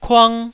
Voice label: "kuang1", taiwanese syllables